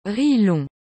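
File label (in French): "riz long", French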